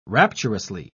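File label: "rapturously", British English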